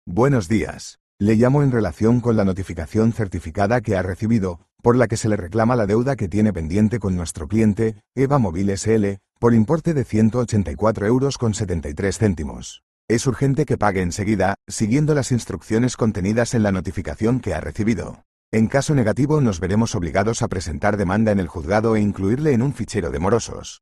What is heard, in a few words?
audio call